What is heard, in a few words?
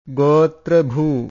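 "gotrabhuu", Pali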